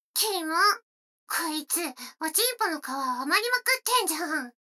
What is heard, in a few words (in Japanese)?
女の子台詞, ASMR